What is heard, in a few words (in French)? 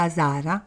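"sarra", Corsican, Gravona (sud); Lieu; Corse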